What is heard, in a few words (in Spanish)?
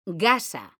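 "gasa" Spanish, female; mekanta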